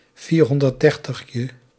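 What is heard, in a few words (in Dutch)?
"vierhonderddertigje", Dutch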